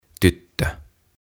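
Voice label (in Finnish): "Tyttö", suomeksi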